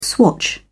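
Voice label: "swatch", British English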